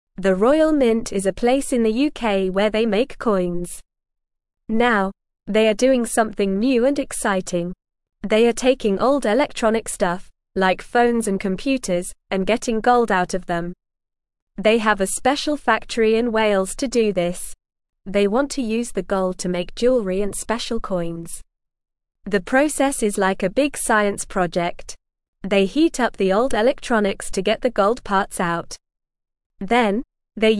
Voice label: English Beginner NORMAL Reading; Royal Mint Makes Gold from Old Electronics